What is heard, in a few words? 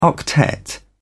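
"octet", English, British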